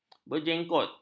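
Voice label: "berjengkot", Malay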